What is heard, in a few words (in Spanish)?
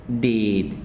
"sal" Zapoteco